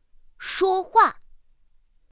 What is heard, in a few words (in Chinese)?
pinyin shuōhuà; pinyin2; pinyinji